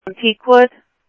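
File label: Simulcast police department, scanner audio trunk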